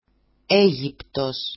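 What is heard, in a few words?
"Egyptos", Greek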